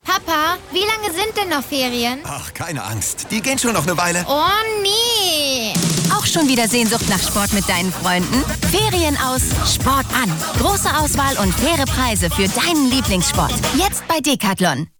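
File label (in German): spot, Kindersprecher; decathlon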